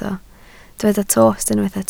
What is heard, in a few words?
"tost", Welsh